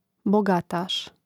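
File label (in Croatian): "bogatas", Hrvatski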